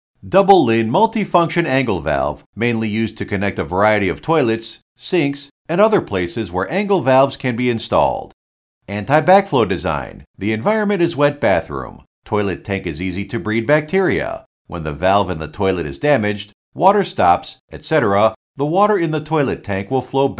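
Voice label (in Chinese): 外籍英语美式宣传轻快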